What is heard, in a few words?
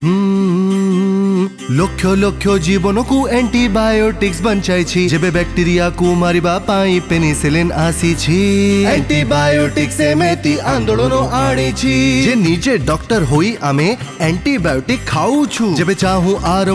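Antibiotic Jingle Odia; Anitbiotic Jingal; jingles in 19 languages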